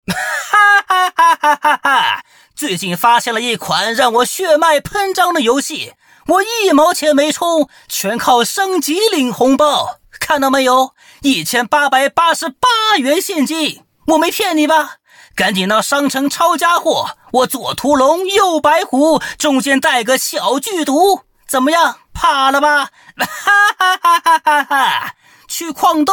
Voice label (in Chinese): 游戏 配音